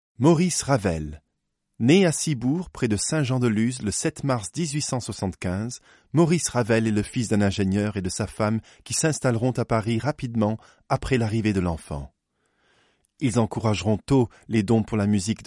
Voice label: French M; voice over

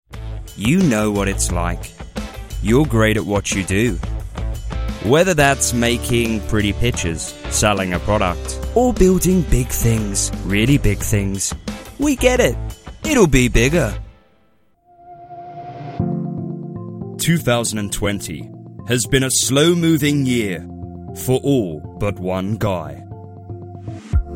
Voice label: English, M, Commercial; advertising voice over

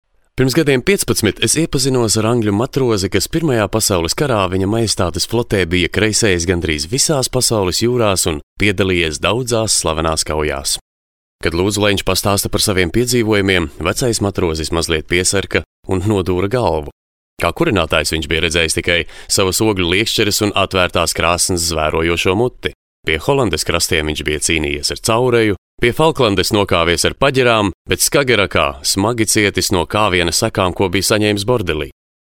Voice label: Latvian, M, Audiobook

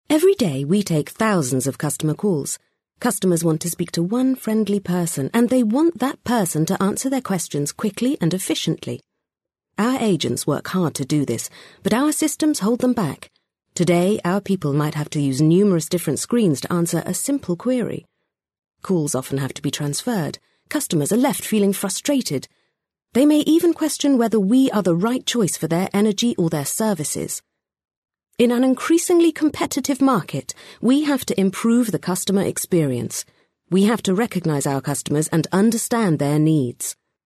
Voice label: English, F, Corporate

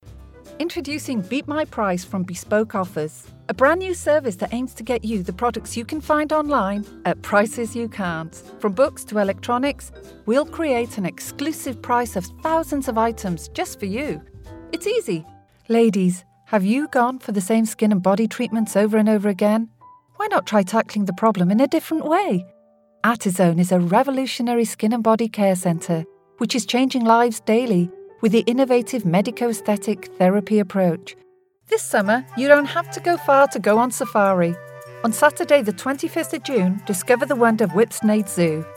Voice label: Welsh English F Commercial, accent of wales

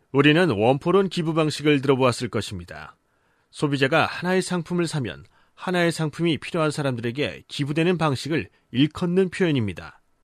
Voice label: Korean, M, Explainer; korean accent voice over

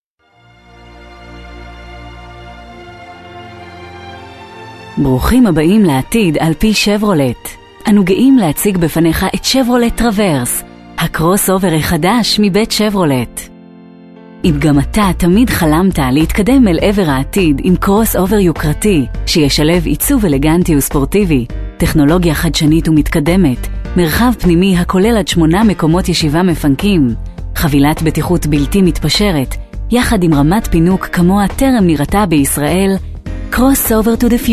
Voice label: hebrew

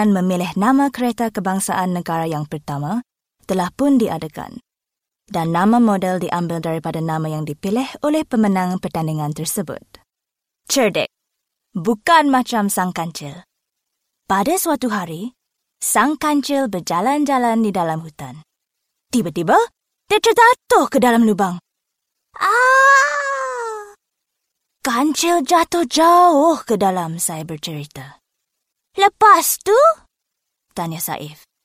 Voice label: Malay, Documentary; malaysian

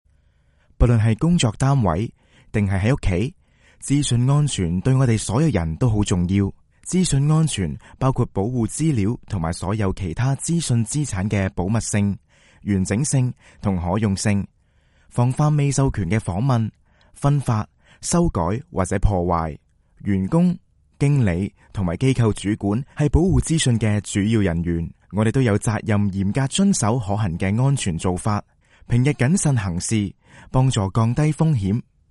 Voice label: Chinese Cantonese M E-learning; voice over

